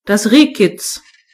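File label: fawn, German voices words; gcompris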